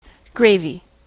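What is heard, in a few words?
"gravy", US English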